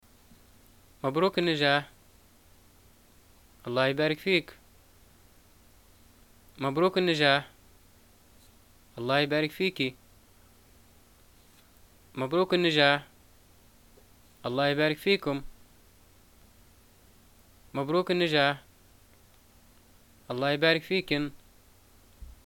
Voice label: greeting graduation, arabic, ly

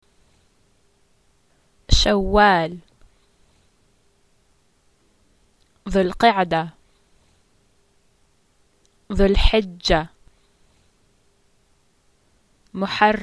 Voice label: islamicmonths, arabic

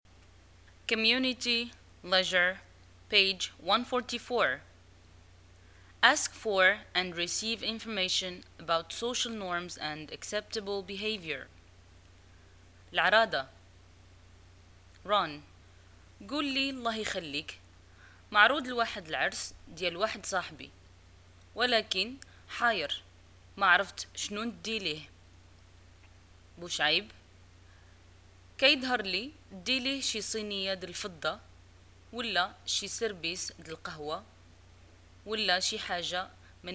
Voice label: dialogue, Arabic, North African, Moroccan

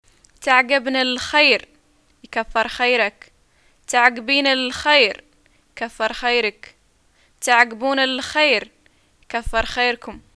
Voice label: "greeting farewell", arabic

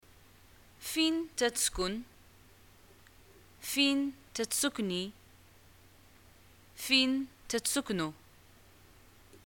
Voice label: where do u live, arabic, ma

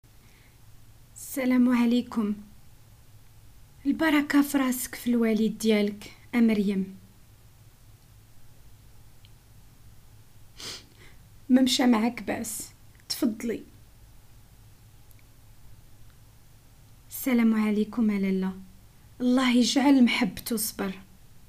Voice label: Moroccan Arabic dialogue; visit

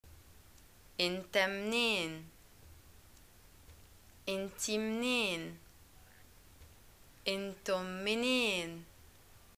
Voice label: where r from, arabic

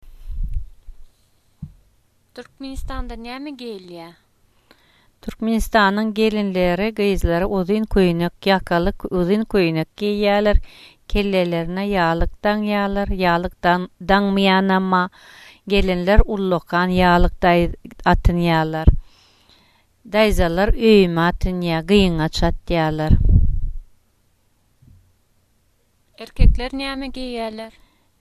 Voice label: dialogue clothes, turkmen

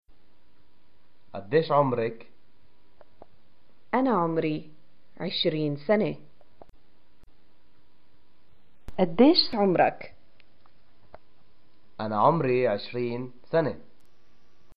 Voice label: how old are you, arabic

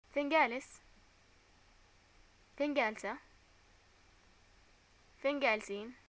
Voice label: where do u live, arabic, ye

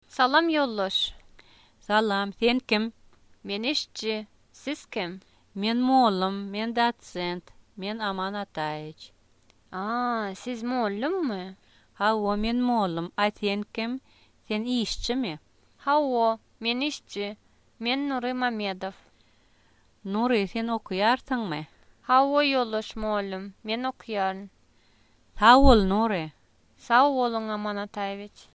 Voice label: dialogue, turkmen

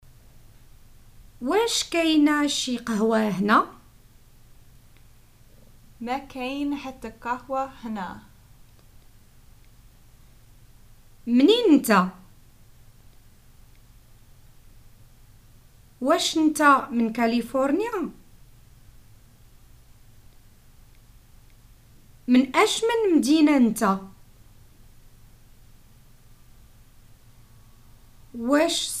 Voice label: prompts, Moroccan Arabic

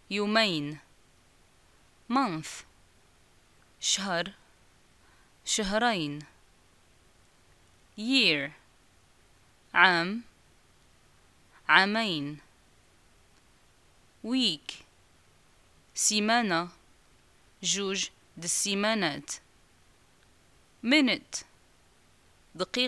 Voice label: dual nouns, Arabic, North African, Moroccan

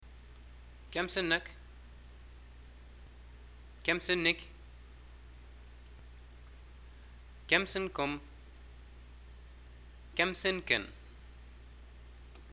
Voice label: how old r u, arabic, ly

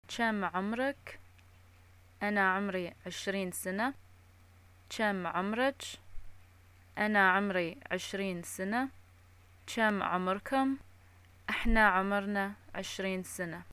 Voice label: how old r u, arabic

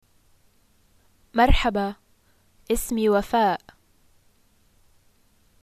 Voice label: my name is, arabic levantine

